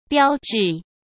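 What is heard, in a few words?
"标致" Mandarin Chinese; chinese flashcards; hsk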